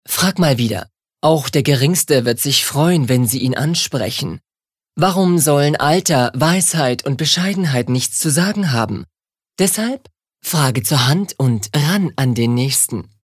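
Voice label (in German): Werbung Überheblich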